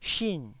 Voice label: "shin4", taiwanese Syllables

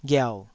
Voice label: "ghiau3", Taiwanese syllable

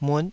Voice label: "mut5", taiwanese

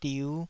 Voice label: "diu5", taiwanese syllable

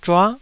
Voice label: "zhua9", taiwanese

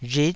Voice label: "rhit9", taiwanese

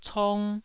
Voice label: "cong1", taiwanese syllable

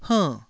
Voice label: "hernn4", taiwanese syllable

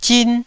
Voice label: "zin1", taiwanese

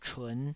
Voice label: "chun5", taiwanese

